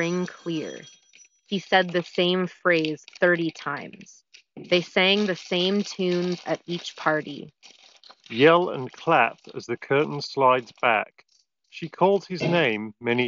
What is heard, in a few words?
Google Pixel Buds Pro Office microphone sample